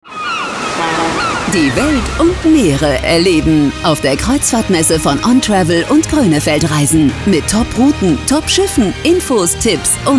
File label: RADIO Spot